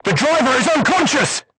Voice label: voice message driver contused, vietnamese

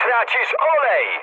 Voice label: damaged oil mood high, speech pol; War Thunder

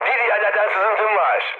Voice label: tank damaged, mood high, speech tur; War Thunder

